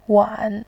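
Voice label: "wan", Mandarin Chinese, tone 3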